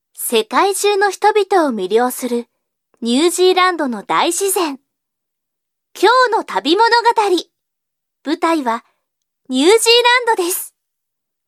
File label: Japanese TV program Narration, Japanese Female